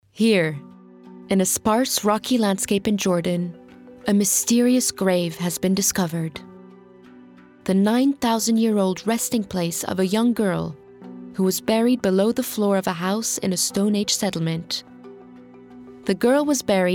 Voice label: female French and Moroccan Arabic transatlantic accent; jordan the mysterious sto